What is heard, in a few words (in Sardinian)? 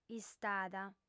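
"istada", Sardinian, female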